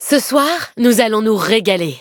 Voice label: levelup, fr